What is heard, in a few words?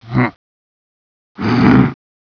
man provoke; weapons